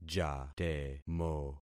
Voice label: "jatemo", concat syllables; Affixing experiment